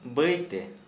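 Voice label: Santali male slow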